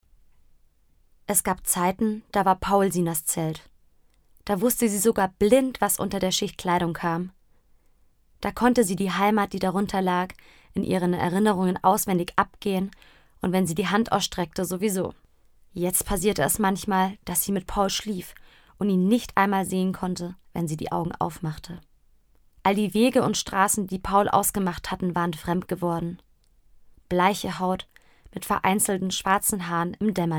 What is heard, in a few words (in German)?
Hörbuch